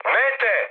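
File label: Serbian enemy detected mood high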